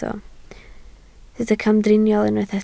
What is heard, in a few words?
"camdriniol", Welsh